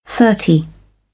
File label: "thirty", British English